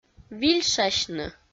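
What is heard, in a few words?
"вильшасьны", Komi, female; audio dict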